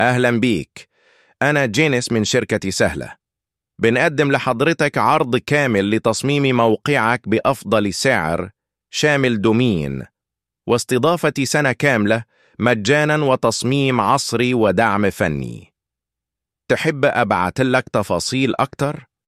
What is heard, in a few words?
english voice; eleven